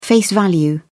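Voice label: "face value", British English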